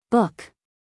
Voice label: "book" US English, female; everyone-can-use-english